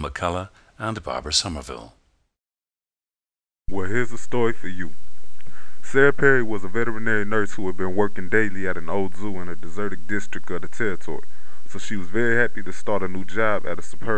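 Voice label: texas, International Dialects of English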